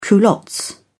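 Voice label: "culottes", British English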